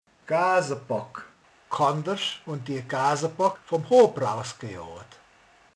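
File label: "bakkecske", Hungarian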